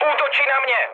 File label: aircraft damaged, speech cze, mood high